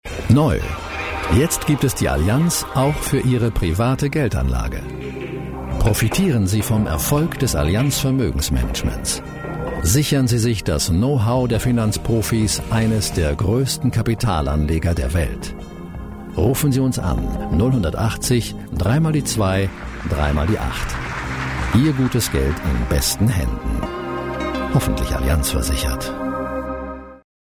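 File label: German male voice over, narrative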